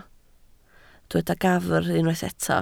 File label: "gafr", Welsh